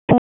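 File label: Sheriff's Office Dispatch 1, p25; wake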